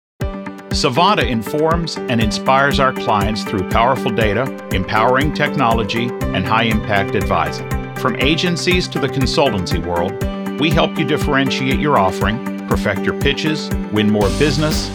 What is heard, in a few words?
Corporate Mix